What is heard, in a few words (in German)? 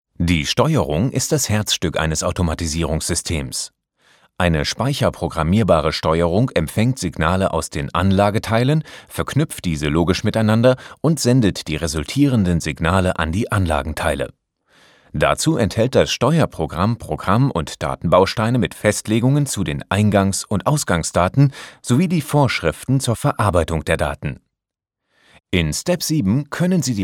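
elearning, deutsch männer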